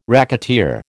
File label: "racketeer", American